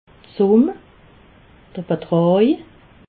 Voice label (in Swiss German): "summ", alsace